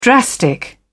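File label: "drastic", British English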